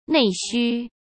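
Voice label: "内需", Mandarin; chinese flashcards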